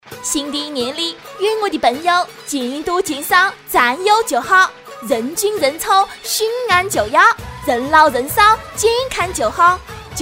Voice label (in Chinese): 长沙方言 女 长沙话 拜年 女童女青年; 方言配音